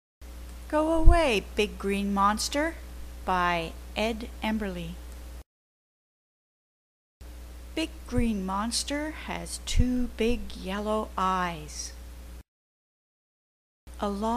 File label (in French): grand monstre vert en anglais